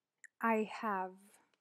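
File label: "I have", English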